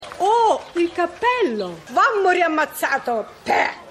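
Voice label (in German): Ältere Frau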